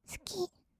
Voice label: "すき", kawaii voice; 1200 kawaii voice!!; 色々なワンショット

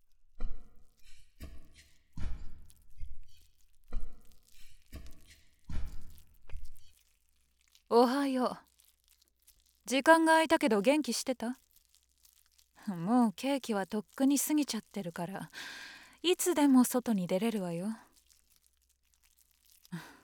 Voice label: 喘ぎ声なし

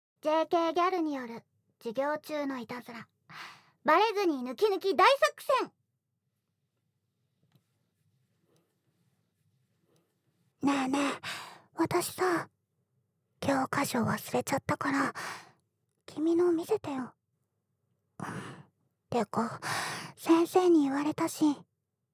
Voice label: 机をひっつけてきたギャルと囁き雑談（SE無し）; 授業中

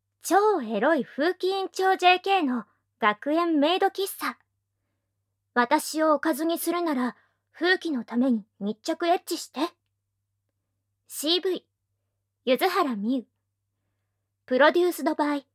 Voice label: タイトルコール, ASMR

